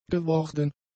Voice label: "geworden", German; adjectives